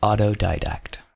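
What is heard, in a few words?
"autodidact", American English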